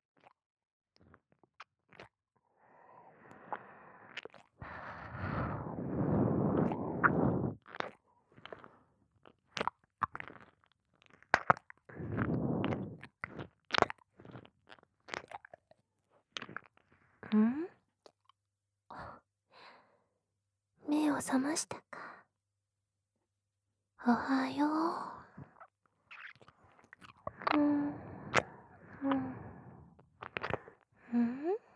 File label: ASMR; SE無し; 女神様からひたすら耳舐めSEX